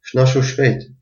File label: get well soon, albanian gheg; Shnoshu shpejt!